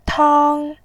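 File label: "tang", Mandarin Chinese, first tone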